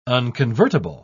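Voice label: "unconvertible", British English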